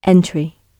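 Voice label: "entry", British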